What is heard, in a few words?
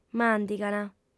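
"màndigant", Sardinian, female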